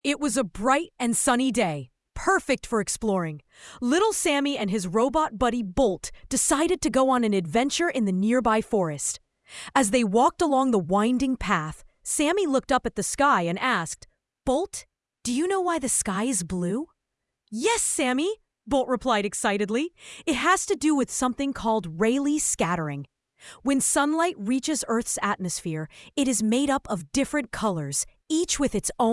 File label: story, Children Stories